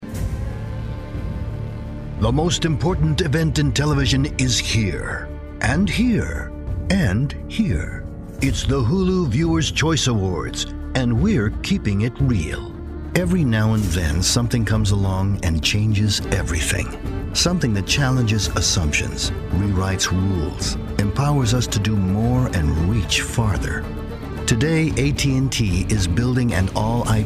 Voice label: 外籍男 解说类

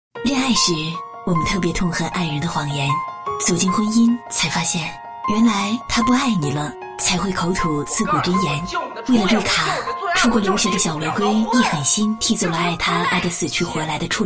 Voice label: 女, 片花; 请对我撒谎

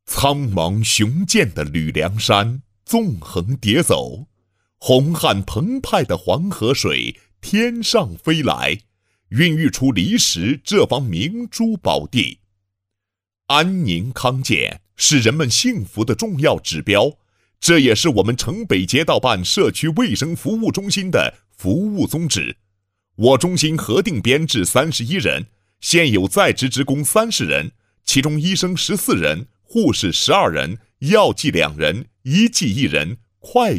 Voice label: 男 医疗宣传 社区卫生服务中心; 城北街道办

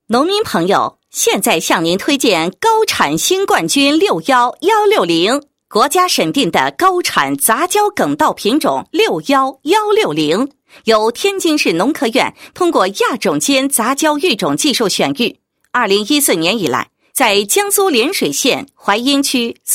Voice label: 女 广告; 稻品种6优160

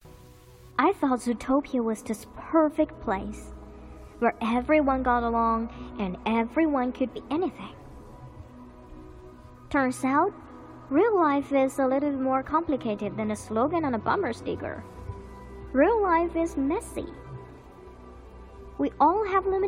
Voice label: 英文电影旁白 动画片音; 英文电影旁白-动画片音-疯狂动物城

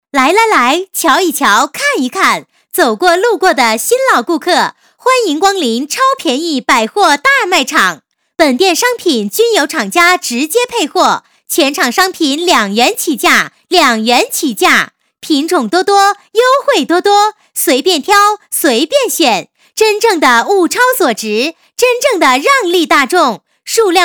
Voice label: 女 促销, 国语女声配音; 超便宜百货大卖场

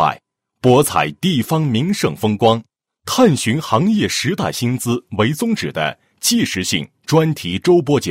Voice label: 男 栏目; 文化中原行

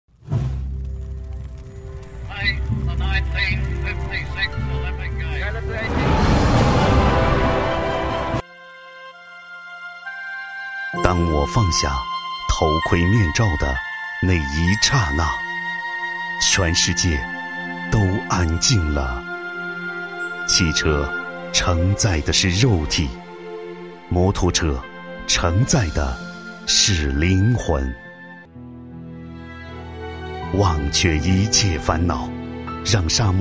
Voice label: 男 广告 深情, 国语男声配音, 浑厚; 摩托车手

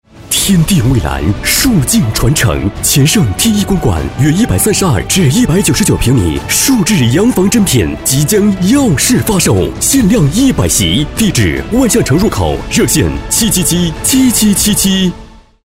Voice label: 男 广告 房地产

